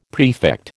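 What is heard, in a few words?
"prefect", American English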